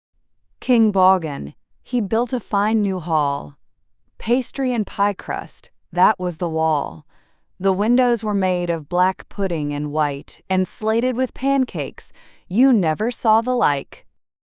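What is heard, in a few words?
female US, classic rhymes; King Boggen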